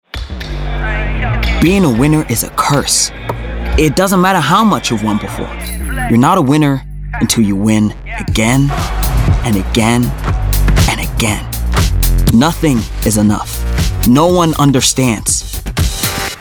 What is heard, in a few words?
Commercial US Accent; Nike